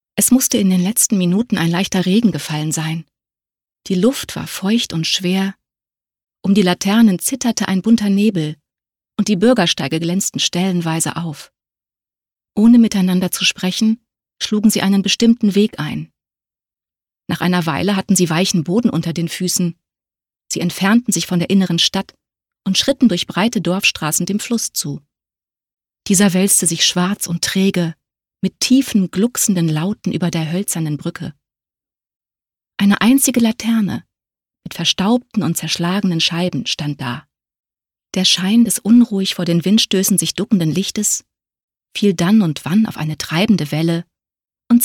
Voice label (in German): Hoerbuch